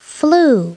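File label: "flew", English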